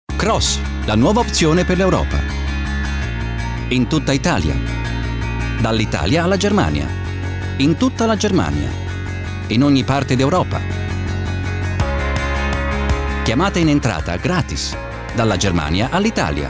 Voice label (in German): ita werbung